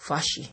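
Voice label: "fashy" Nigerian Pidgin, male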